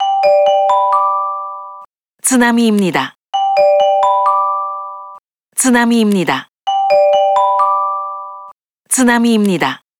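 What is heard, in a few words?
translated tsunami Korean; iOS 27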